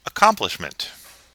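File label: "accomplishment", US English; pronunciation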